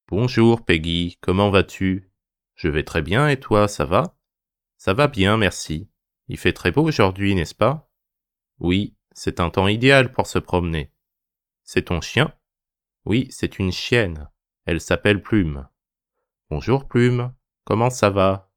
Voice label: conversation fast